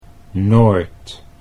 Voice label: "noord", Dutch

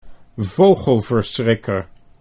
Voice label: "vogelverschrikker", Dutch

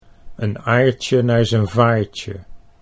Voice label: "een aardje naar", Dutch

